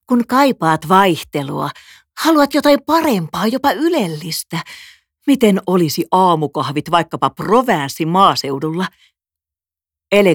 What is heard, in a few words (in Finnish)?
radio hienostunut elegance